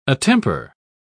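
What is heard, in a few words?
"attemper", English, British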